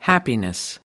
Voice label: "happiness", us